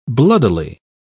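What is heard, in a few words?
"bloodily", British English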